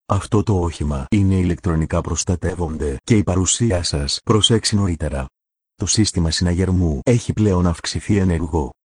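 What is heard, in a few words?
Greek, camper alarm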